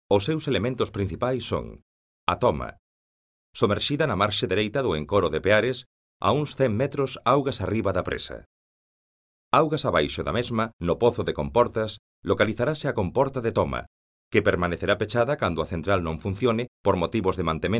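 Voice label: Galician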